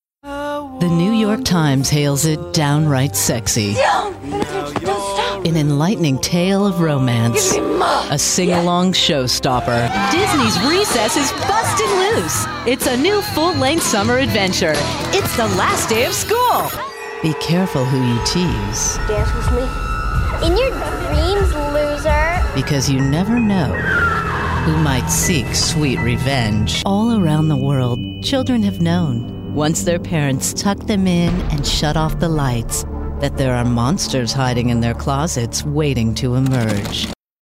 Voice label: Trailer